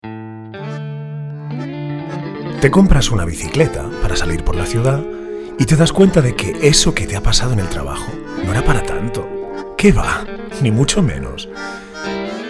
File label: spanish sports comercial; ideasonora